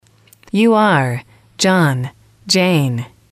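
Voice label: "you", English, female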